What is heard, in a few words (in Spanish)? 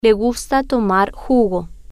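Le Gusta Tomar Jugo, spanish, female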